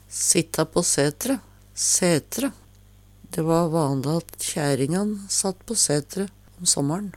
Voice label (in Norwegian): "sitta på sætre", Numedalsmål; Eksempel på bruk Dæ va vanle at kjæringan satt på sætre om såmmår`n.